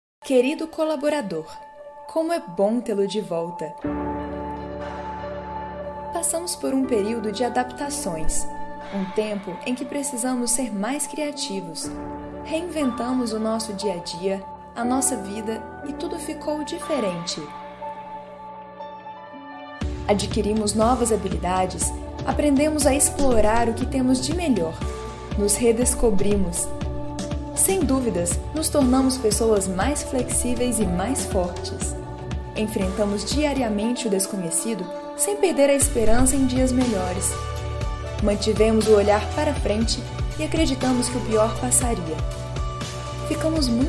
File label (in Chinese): 葡萄牙语女声; 外籍